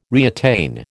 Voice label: "reattain", American